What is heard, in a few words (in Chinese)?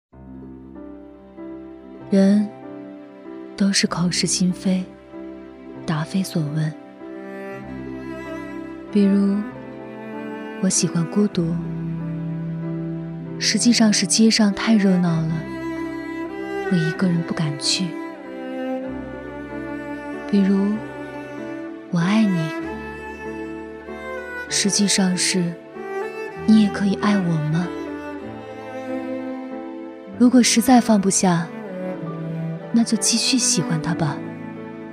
女 独白 爱情